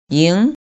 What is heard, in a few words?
"ying2", pinyin